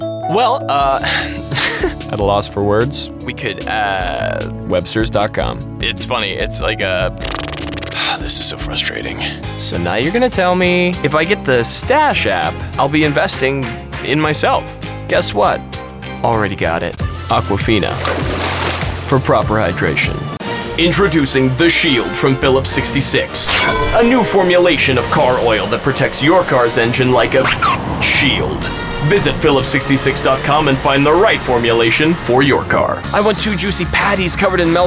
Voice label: Commercial